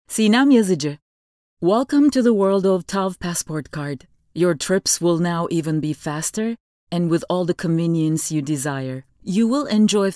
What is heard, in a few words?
english